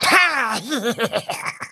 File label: Male Rat